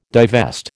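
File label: "divest", American English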